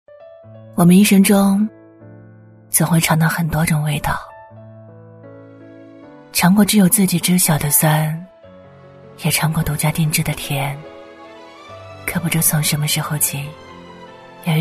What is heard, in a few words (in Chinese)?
女-情感旁白-磁性; 小时候; 磁性温暖; 低沉走心